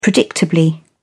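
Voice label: "predictably", English, British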